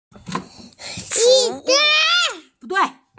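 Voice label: "fu2wu4", Mandarin Chinese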